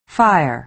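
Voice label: "fire" American English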